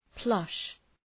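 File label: "plush", English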